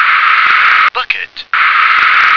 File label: "bucket", English